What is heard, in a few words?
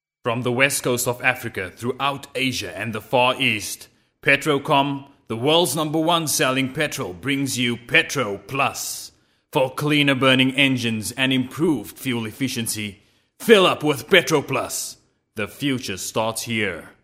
eng hard sell